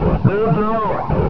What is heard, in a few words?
man down, combine soldier, far; hl2